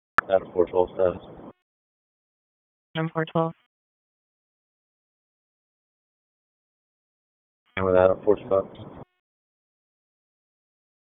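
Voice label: Raleigh Police Southeast District Dispatch, p25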